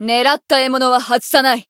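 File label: Voice variable chant, Japanese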